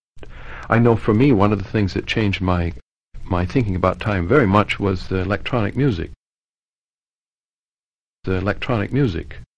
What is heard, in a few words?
RX2 Test DE Clipped